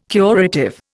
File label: "curative", Indian